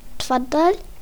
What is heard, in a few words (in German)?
Bitte, arabisch